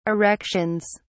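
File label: "erections", British English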